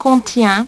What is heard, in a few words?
"contient", French; HyperSound™2